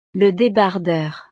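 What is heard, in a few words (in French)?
"le debardeur", French; la camiseta sin mangas; ropa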